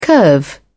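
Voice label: "curve", British English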